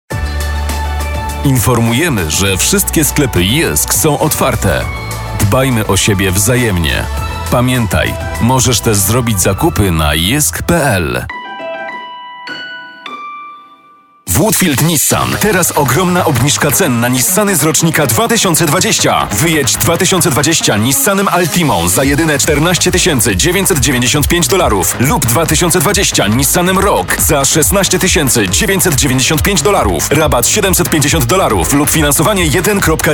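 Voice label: Polish, male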